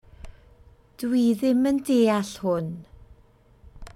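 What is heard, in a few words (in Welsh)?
dwy i ddim yn deall hwn, Welsh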